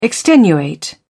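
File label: "extenuate", British English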